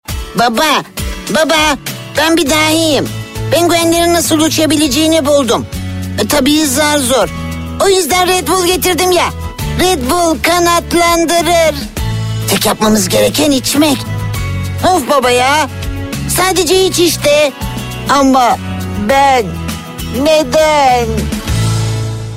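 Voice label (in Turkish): reklam, voiceover for commercials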